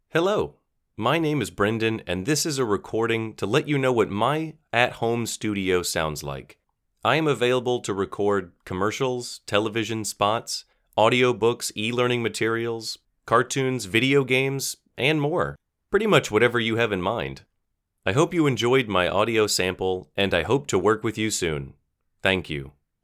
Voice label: Studio mono